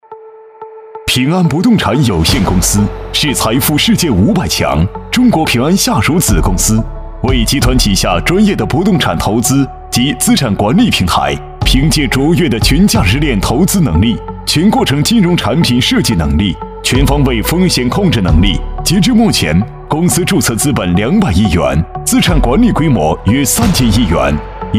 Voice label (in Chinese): 男 大气科技 平安不动产; 优质大气